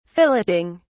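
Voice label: "filleting", British English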